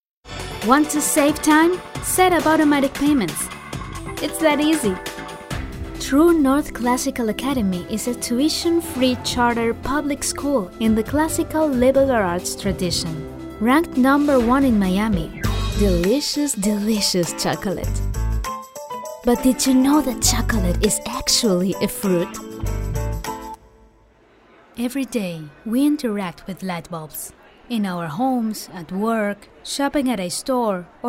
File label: English